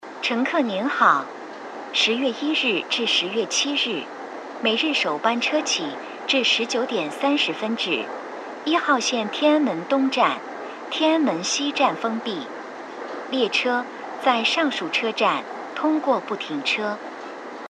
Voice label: 1号线 国庆封站（车内）

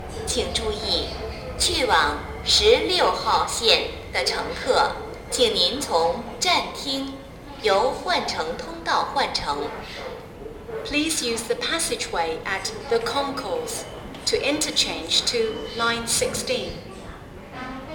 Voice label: 国家图书馆站 16号线换乘提示

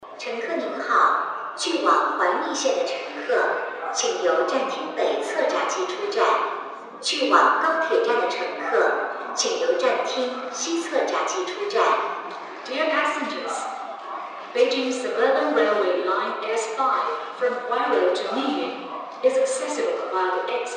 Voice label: 13号线 清河站站厅广播

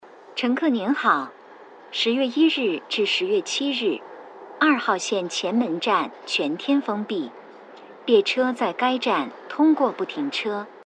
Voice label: 2号线 国庆前门封站（车内）

